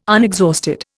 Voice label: "unexhausted", Indian

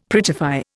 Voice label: "prettify", Indian; Dictionary

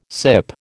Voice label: "sip", American

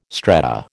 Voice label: "strata", American

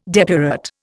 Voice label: "depurate", Indian

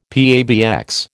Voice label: "PABX", American

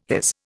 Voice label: "his", Indian

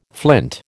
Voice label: "flint", American

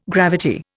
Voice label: "gravity", Indian

